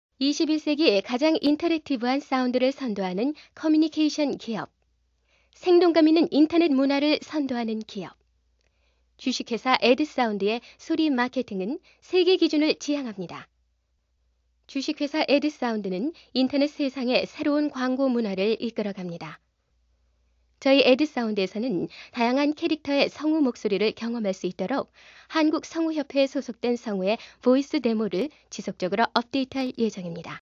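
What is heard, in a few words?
Korean female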